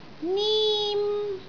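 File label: "nim1", Cantonese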